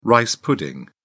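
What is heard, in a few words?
"rice pudding", British English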